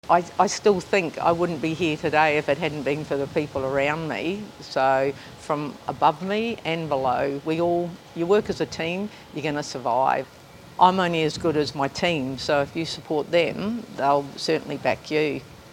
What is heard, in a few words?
Radio Grab